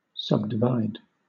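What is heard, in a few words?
"subdivide", British English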